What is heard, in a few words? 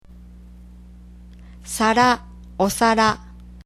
"plate", cookware japanese